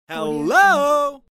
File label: male voice "hello"